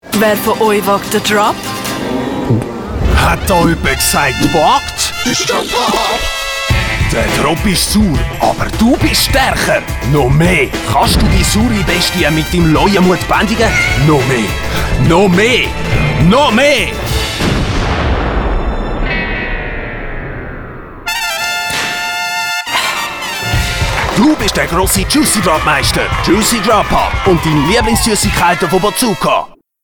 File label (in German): Synchronsprecher für Fernsehspot; Lollypop